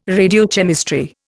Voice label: "radiochemistry", Indian